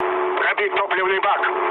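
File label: tank damaged, mood med, Russian; War Thunder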